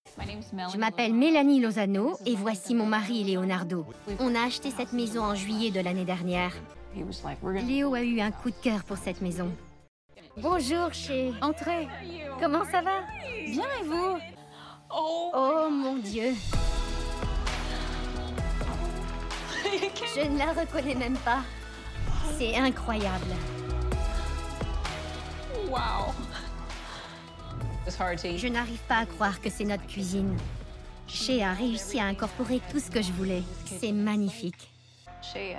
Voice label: Voice over voix femme; Ma maison revee

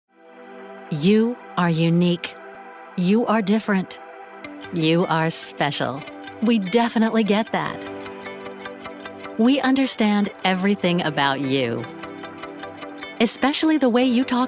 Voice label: Ad; Millennials

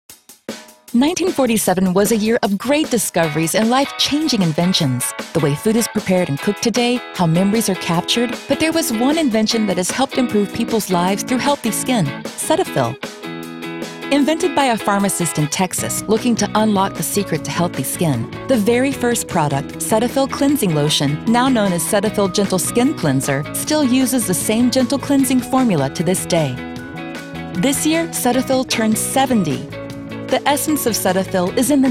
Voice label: Voice Over; CETAPHIL

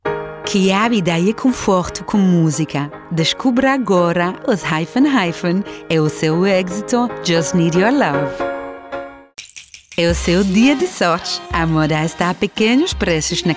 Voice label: portugais in store announcement; Kiabi

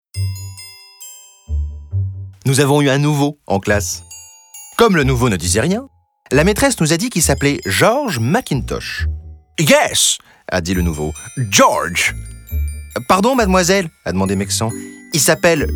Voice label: Audiobook; Le Petit Nicolas

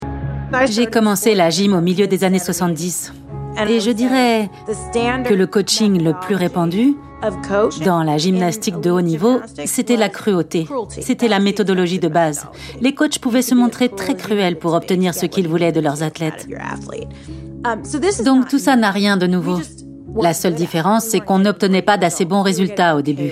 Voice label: voice over documentaire; Athlete A; Netflix

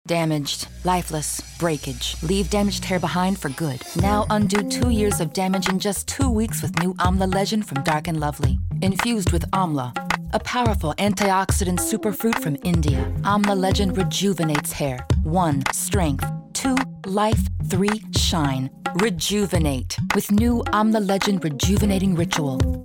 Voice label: Voice Over; AMLA LEGEND Dark Lovely

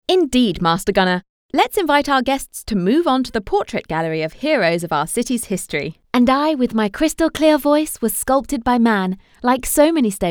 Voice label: Audioguide for Kids ENG

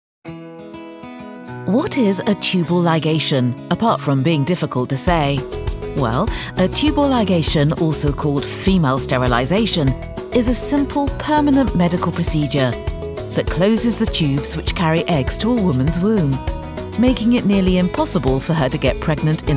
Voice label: corporate; marie stopes

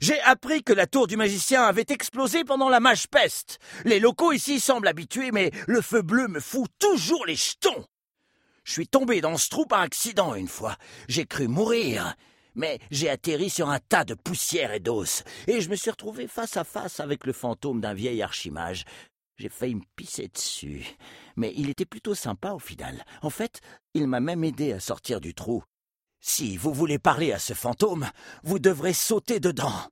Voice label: Curious Dwarf; DD Neverwinter

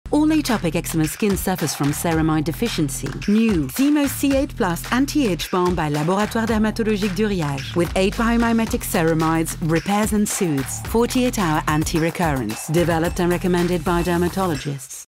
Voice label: ENG; Uriage; Xemose

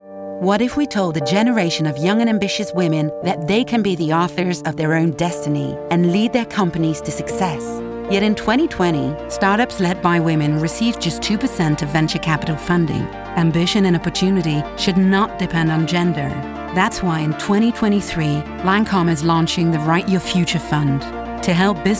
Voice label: Transatlantic accent between US and British; LANCOME

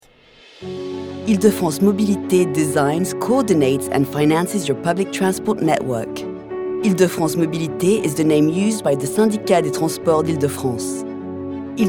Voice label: Voix UK FR Stiff

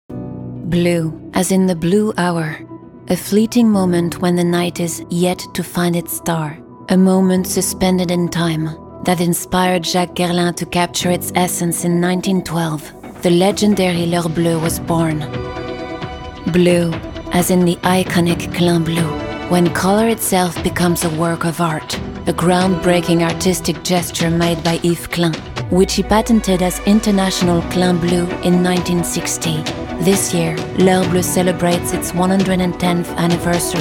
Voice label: PUB ENG; Guerlain Heure Bleue

